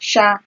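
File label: "sha", Nigerian Pidgin, female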